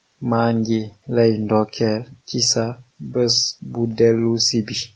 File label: birthday, wolof